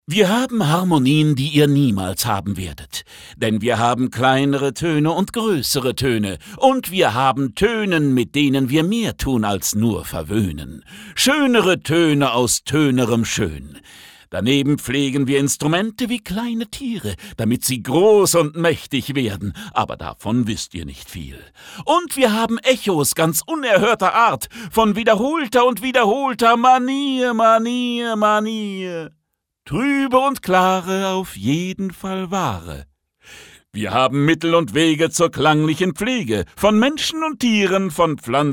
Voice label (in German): Schauspiel Frech